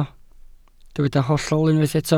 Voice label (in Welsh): "hollol" Welsh